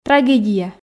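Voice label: "трагедия", Komi, female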